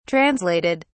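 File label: "translated", British English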